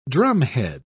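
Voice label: "drumhead", English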